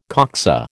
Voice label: "coxa", American